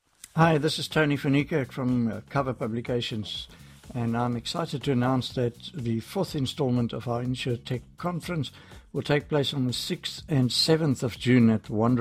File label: voice over promo; Insurtech